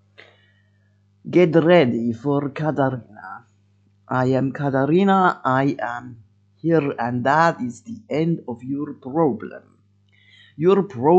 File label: Eastern European